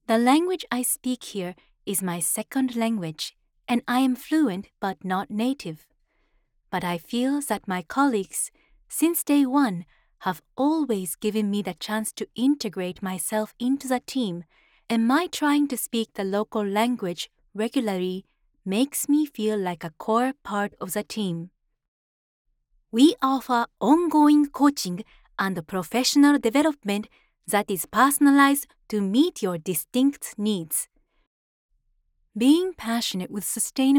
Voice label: Accented English